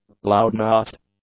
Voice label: "loudmouthed", English; adjectives